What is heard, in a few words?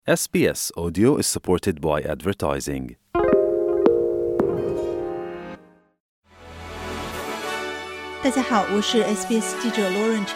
mandarin news flash; SBS Chinese; 【SBS新闻快报】两党出台家暴政策 遭活动家质疑关注不足; 2025年4月24日下午：两大政党均加大了对家庭暴力问题的重视力度，并相继推出了一系列相关政策举措。然而，这一举措却未能满足活动家们的期望，他们认为在选举期间，家庭暴力问题所获得的关注度远远不足（收听播客，了解详情）。